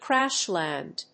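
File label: "crash-land", English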